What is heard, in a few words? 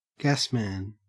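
"gasman", Australian English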